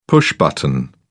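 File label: "push button", British English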